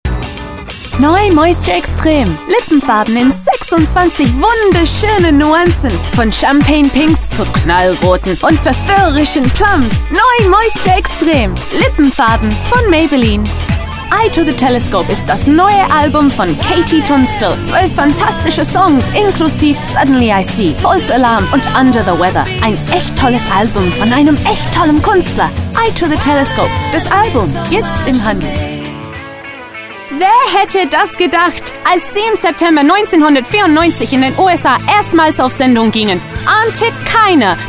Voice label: german

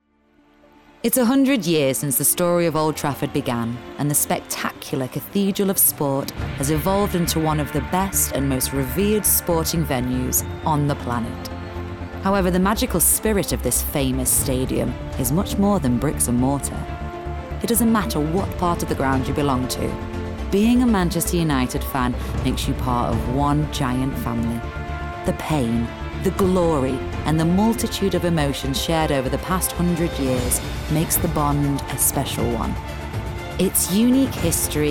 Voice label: narr

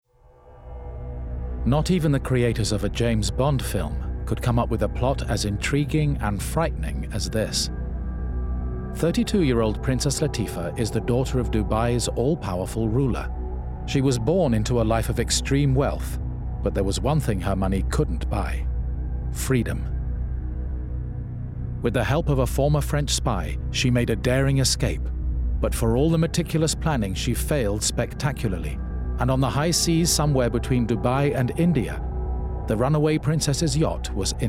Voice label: narr

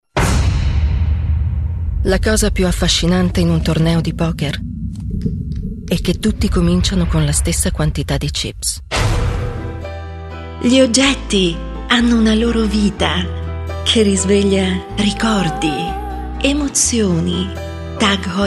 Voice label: italian commercial

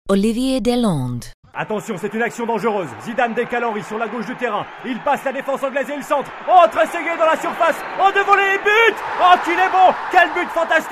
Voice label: french sports commentary

